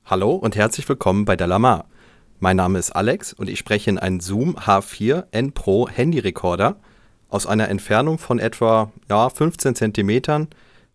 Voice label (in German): zoom h4n pro stimme